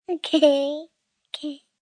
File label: Response, 1 child, 3 yrs.; Okay Kay